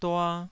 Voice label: "dua2", taiwanese syllables

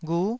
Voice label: "ghu9", Taiwanese syllable

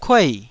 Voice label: "kuei4", Taiwanese syllable

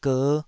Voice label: "ger5", Taiwanese syllable

